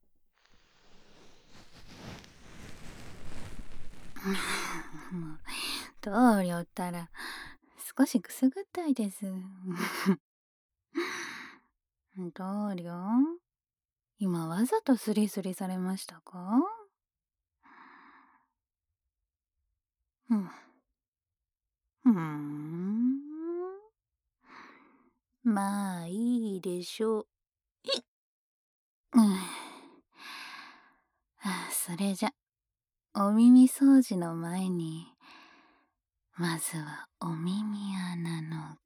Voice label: 【右】こしょこしょ囁き(始), ASMR

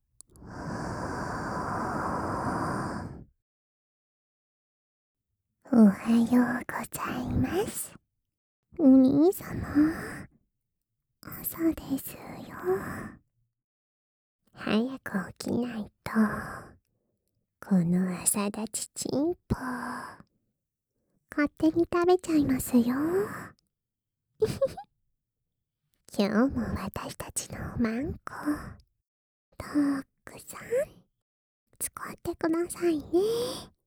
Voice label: おはようアラーム; ラブラブ目覚まし音声; マリ&リナ

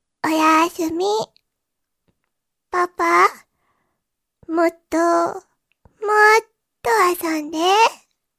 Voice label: 日曜 娘, おやしゅみ

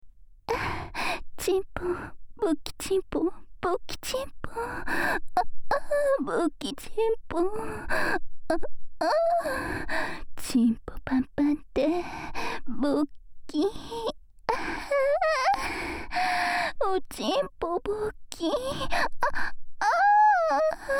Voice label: 淫語連呼 ボッキ; サブキャラ

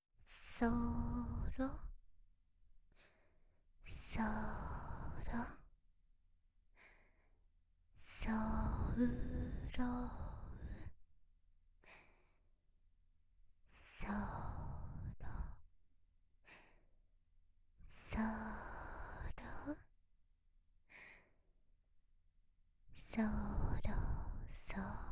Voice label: 囁き淫語連呼; SEなし

